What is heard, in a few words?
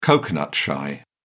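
"coconut shy", English, British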